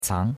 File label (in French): "cang2", chinois; Cours-de-Chinois